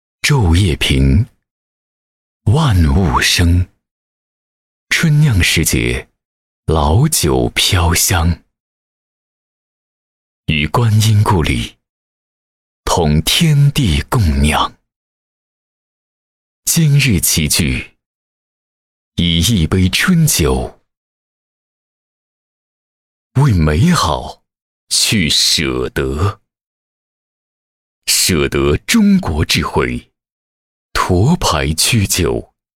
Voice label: 男, 质感广告, 酒

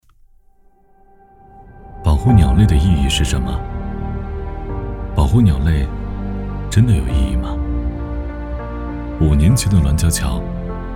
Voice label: 男 走心

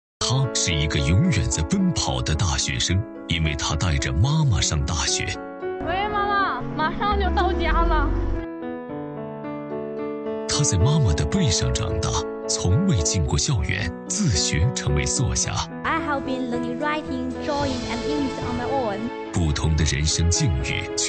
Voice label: 男 电视栏目类 栏目片花; 黑龙江卫视幸福驿站栏目片花

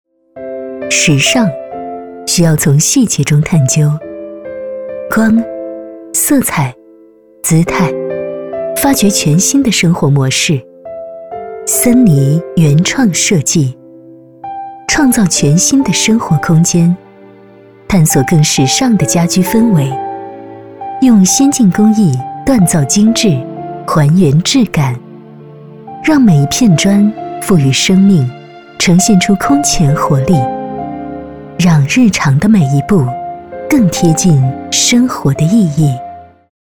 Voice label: 女 旁白 时尚, 国语配音; 森尼设计

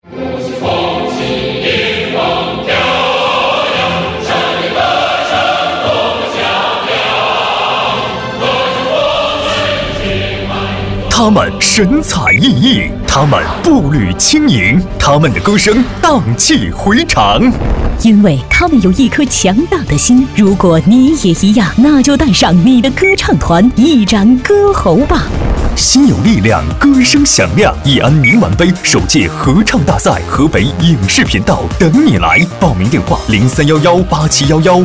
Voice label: 女 电视广告 大气激情 对播类

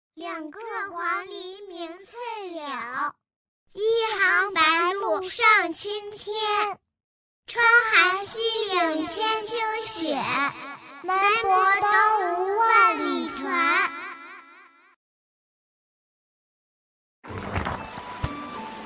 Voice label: 女 童声 古诗; 叫叫

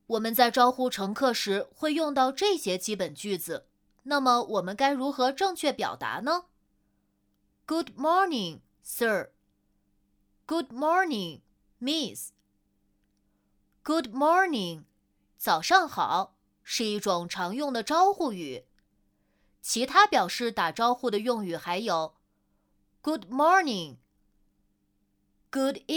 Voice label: 英语教学 男童中英文; 冬奥会英语教学

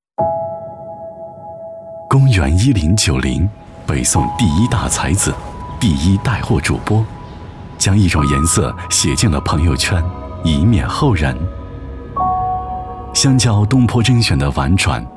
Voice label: 男 宣传 温柔故事

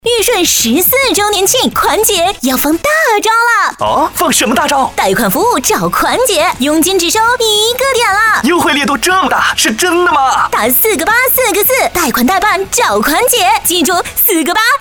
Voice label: 女 广告 激情热烈 对播 app类

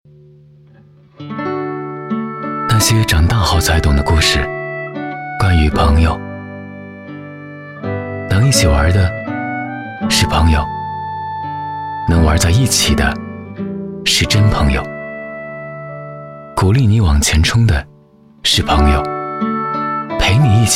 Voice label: 男 温情商务 银行; 台州银行

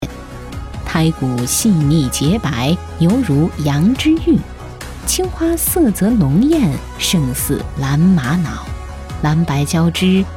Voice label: 女 宣传片 景泰蓝

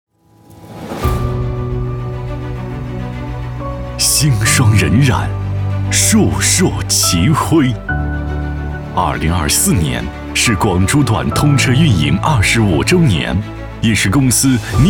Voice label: 男 年终总结, 磁性配音, 普通话; 大道同心

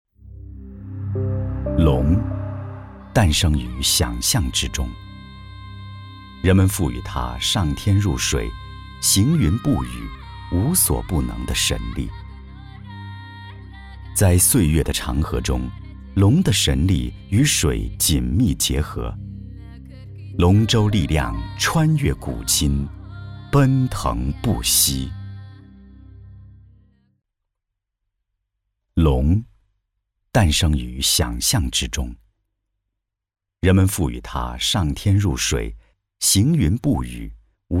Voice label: 男 专题 民俗纪录片; 民俗纪录片龙; 文献类配音; 国语配音

